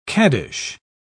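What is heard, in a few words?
"caddish", British English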